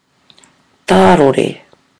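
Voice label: "tārore", Māori; to trap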